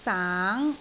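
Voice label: "saang2", Cantonese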